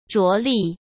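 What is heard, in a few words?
"着力", Mandarin Chinese; hsk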